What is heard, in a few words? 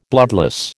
"bloodless", American